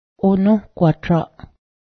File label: medicine, Cayuga